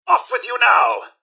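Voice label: attack, mrhandy, robot; npc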